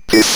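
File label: ROBVOX, robot voice; E-Lab; X Static Goldmine 1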